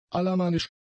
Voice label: "alamannisch", German; adjectives

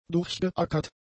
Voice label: "durchgeackert", German; adjectives

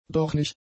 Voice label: "dornig", German; adjectives

